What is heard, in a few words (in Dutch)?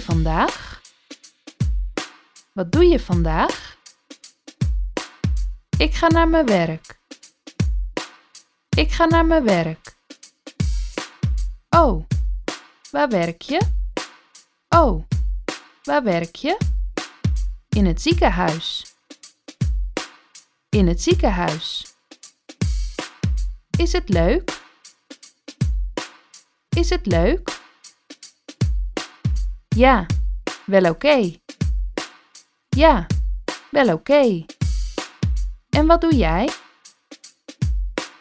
Taalriedel 80bpm; SPREEKTAAL Basis